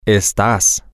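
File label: you are informal temporary, Spanish, male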